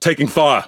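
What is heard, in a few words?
Pirate Speech Pilots Bomber Under Attack, English pirate; World Of Warships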